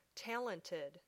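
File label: "talented", English word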